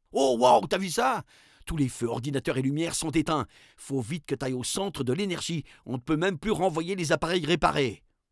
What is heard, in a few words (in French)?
narrateur; coupure elec; La-Fabrik